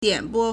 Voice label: "dian3 bo2", Chinese